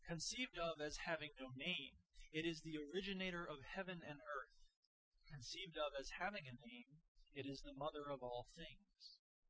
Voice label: male inst sim; SiSEC08; SiSEC underdetermined